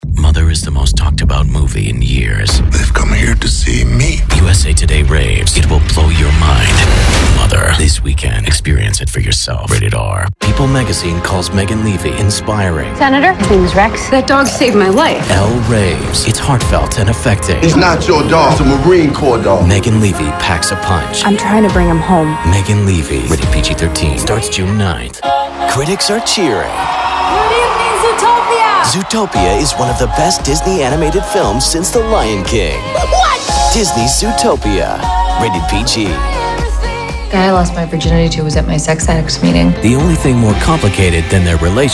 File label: Trailer